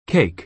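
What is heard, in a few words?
"cake", American English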